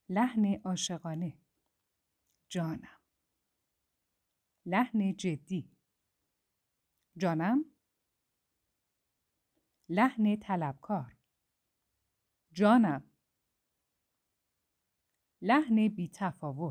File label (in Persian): جانم, لحن های متفاوت با کلمه جانم; لحن در فن بیان